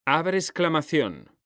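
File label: "abrir exclamacion", Spanish; mekanta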